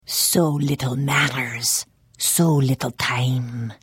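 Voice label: Hello, Khajiit female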